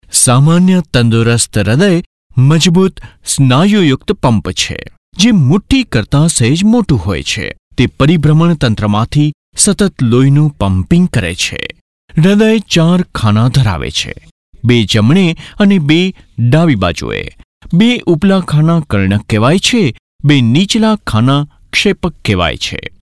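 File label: Gujarati male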